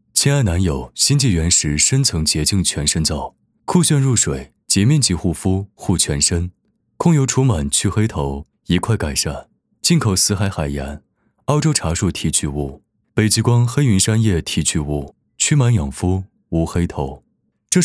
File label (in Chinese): 亲爱男友; 全身皂